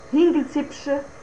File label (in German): "huehner", fraenkisch